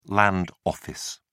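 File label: "land office", British English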